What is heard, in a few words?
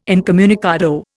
"incommunicado", Indian